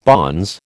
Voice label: "bonze", American

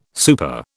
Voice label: "super", British

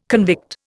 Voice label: "convict", Indian

